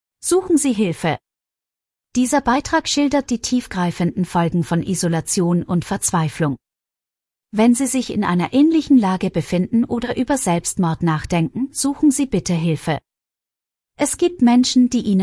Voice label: text to voice; suizidpravention power of touch; auswirkungen von beruhrungsentzug